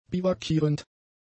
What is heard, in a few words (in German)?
"biwakierend", German; adjectives